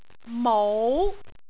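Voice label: "mou2", Cantonese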